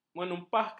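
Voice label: "menumpahkan", Malay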